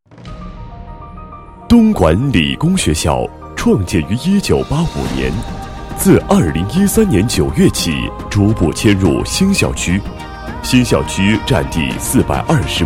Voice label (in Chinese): 男 解说 示范校建设验收视频解说词